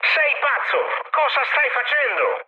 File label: player killed ally mood high, speech it